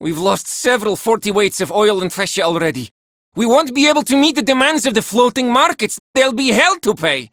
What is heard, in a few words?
Villagers Male, Cetus Invasion